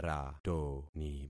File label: "ratoni", concat syllables; Affixing experiment